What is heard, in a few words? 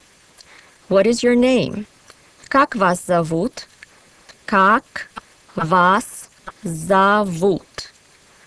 Russian Name